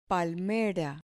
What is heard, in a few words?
"palmera", Spanish